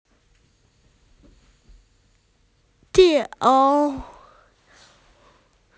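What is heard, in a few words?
"railway", Mandarin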